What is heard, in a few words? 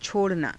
"cho_ranaa", Hindi